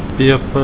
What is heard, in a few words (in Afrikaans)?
"peper", Afrikaans